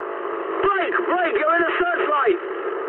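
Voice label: English searchlite mood high; War Thunder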